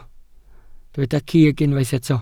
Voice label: "cig", welsh

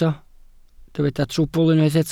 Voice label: "trwbl", Welsh

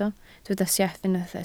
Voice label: "sieff", Welsh

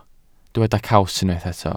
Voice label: "caws", welsh

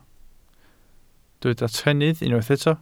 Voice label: "trennydd", Welsh

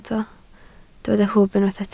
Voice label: "hwb", Welsh

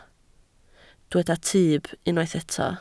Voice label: "tyb", Welsh

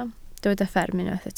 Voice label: "fferm", Welsh

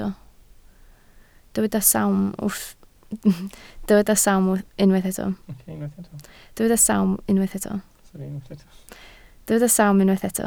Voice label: "sawm", Welsh

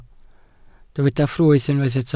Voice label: "ffrwyth", Welsh

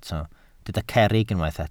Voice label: "cerrig", Welsh

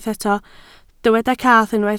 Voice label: "cath", Welsh

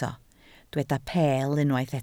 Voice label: "peel", Welsh

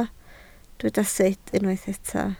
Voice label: "sut", Welsh

